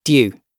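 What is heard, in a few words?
"due", British English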